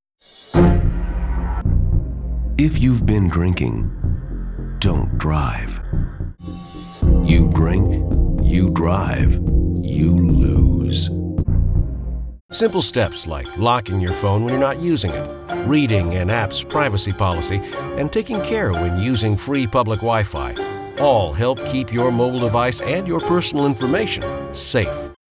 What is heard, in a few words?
PSA Announcer